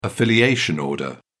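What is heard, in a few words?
"affiliation order", English, uk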